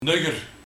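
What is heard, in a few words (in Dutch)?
"Nugger", dialect; Alert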